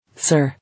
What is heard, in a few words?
"Sir", English